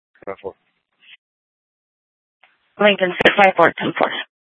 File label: Sheriff, scanner